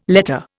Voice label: "letter", Indian